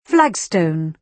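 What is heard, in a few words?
"flagstone", British English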